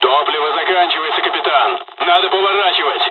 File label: dialog, dialogs russian; midway; War Thunder